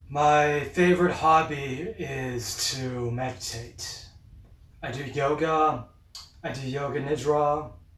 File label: noisy reference